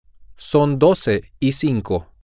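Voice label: its 1205, Spanish, male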